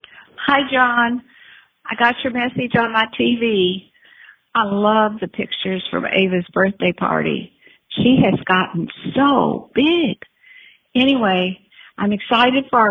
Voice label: Voice Message